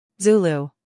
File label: "Zulu" us